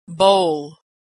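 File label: "bowl", American English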